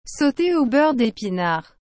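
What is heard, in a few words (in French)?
"saute au beurre d epinards", French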